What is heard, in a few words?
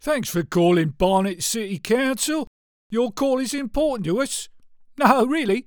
Character Voice